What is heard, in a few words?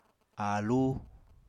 "aalú", apatani